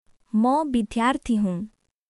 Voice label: Nepali, basic phrases

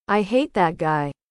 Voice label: english

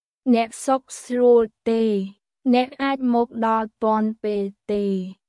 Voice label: Khmer, basic khmer cambodian everyday

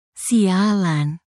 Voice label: indonesia, negative phrases

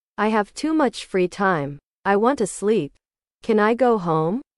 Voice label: english

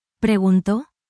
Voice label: spanish, spanish phrases

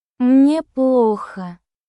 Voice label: russia, russian; ロシア語; 日常で使うフレーズ

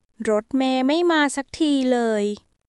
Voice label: Thai, basic thai phrases

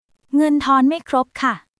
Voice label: Thai, basic thai phrases; タイ旅行で必須の「伝える力」を磨く！カタカナ発音で覚える基本の100フレーズ

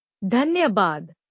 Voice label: Nepali, thank you in nepali

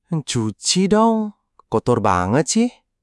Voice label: indonesian phrases

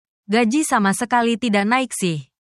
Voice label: indonesian phrases

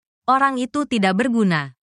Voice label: indonesia, negative phrases

